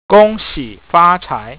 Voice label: chinese